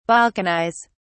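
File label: "balkanize", British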